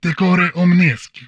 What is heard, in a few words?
humanoid male; Stellaris; humanoids